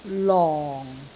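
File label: "long4", Cantonese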